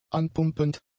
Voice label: "anpumpend", German; adjectives

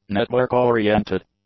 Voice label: "network-oriented", english; adjectives

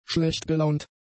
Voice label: "schlechtgelaunt", German; adjectives

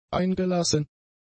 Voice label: "eingelassen", German; adjectives

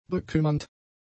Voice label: "bekümmernd", German; adjectives

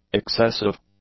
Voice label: "excessive", English; adjectives

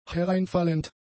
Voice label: "hereinfallend", German; adjectives

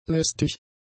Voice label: "lästig", German; adjectives

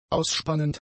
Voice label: "ausspannend", German; adjectives

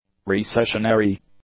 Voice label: "recessionary", English; adjectives

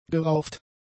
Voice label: "gerauft", German; adjectives

